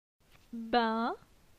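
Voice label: "Bin", French; phonetique; la syllabe in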